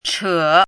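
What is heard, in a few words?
"chě", Mandarin Chinese; 尺; 工尺谱记音符号之一。相当于简谱的"2"。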